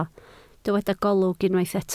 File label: "golwg", Welsh